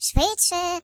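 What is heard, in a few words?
"Hurry", British; hedgewars; HedgewarsMobile; Default uk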